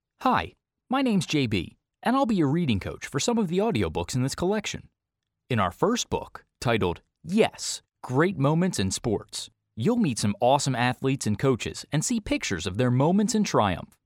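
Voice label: American English, male, voice over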